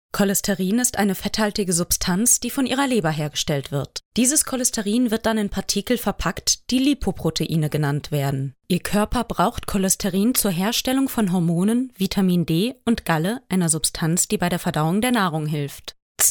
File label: German female